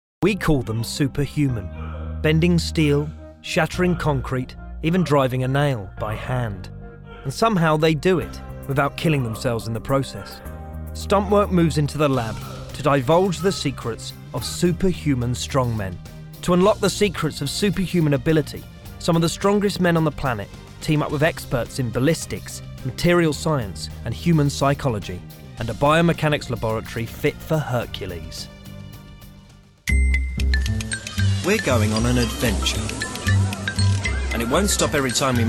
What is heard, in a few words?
narr